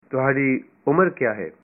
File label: How old are you, punjabi